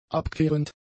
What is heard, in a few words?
"abkehrend", German; adjectives